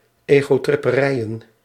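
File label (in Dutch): "egotripperijen", Dutch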